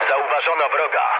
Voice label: enemy detected mood low, speech pol; War Thunder

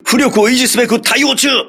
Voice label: repairing, voice message, crew ships japanese

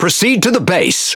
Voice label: Speech Quick Commands Enemy Base, EN GB; World Of Warships

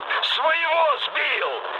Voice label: ru player killed ally mood med; War Thunder

